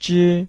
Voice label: "zhi1", Chinese